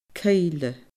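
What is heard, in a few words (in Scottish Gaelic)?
"cuibhle", Scottish Gaelic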